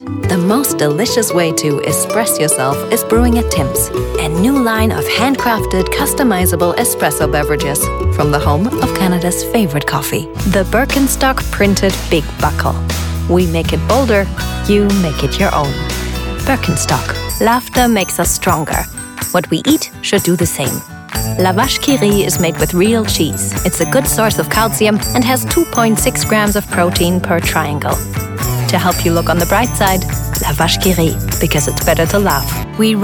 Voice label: Commercials English